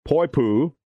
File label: "POIPU", pronunciation, Hawaii